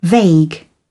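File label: "vague", British English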